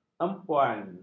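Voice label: "empuan", Malay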